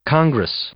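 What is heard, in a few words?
"congress", English; noun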